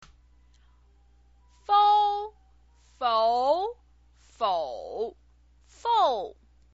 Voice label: "fou", chinese pinyin